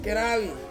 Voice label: "keravi", Ansus; yellow